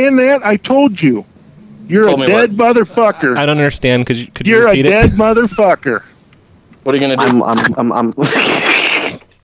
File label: collect call really angers a guy; Phone Losers of America Media Pack 2014; Miscellaneous Pranks